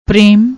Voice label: "prem", Punjabi